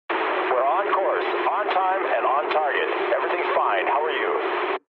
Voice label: eng chat, speech eng

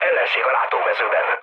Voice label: enemy detected, speech hun; War Thunder

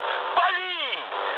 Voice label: attack position, mood high, speech ru

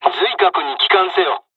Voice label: dialogs japanese; War Thunder

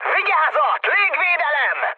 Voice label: damaged aa, mood high, speech hun; War Thunder

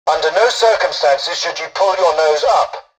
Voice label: tutorial dialogue, english; War Thunder

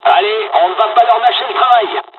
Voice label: dialog, dialogs french; War Thunder

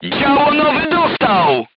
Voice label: voice message gunlayer wounded, polish

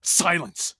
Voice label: silence; Escape from Tarkov

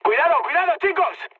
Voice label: near waypoint, mood high, speech; War Thunder

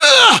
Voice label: pain, voiceovers english

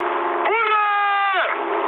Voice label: player killed enemy, mood med, speech ru

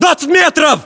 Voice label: threat distance, ru; localized english

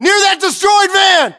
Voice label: landmark near van destroyed, battlechatter us

